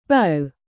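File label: "live", verb, British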